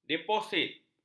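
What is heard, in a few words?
"deposit", Malay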